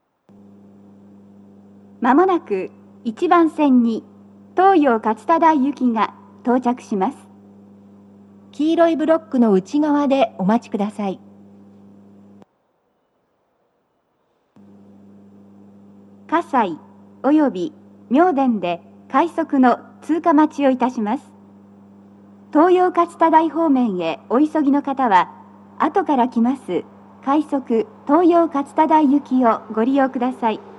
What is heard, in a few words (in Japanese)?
接近放送; 放送は「各駅停車 東葉勝田台」です。葛西及び妙典で、快速 の通過待ちを致します。